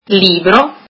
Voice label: book, italian